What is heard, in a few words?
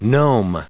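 "gnome", English, us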